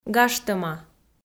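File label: "гажтӧма", Komi, female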